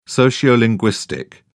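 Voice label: "sociolinguistic", British English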